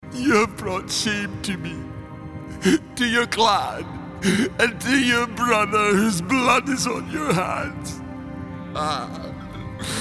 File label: gaming, Scottish voice; son of mine no more